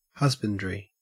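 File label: "husbandry", Australian English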